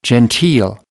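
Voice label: "genteel", English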